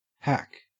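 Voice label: "hack" Australian English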